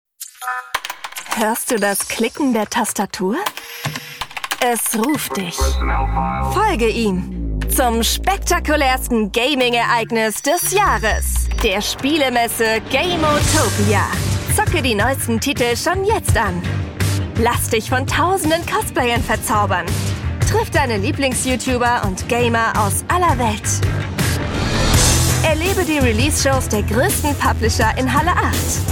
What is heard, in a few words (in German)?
Werbung Promo; Gameotopia